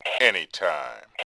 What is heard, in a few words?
anytime, Male; voice; Tribes2